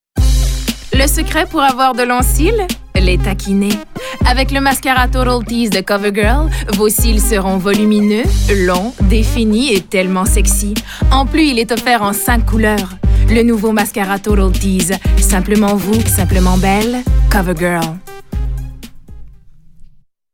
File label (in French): Annonceur